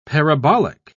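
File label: "parabolic", British English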